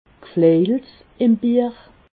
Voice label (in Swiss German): "gflejels", Alsace